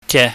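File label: "je", Cantonese, mid level tone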